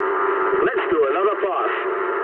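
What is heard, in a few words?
English bomb failure mood low; War Thunder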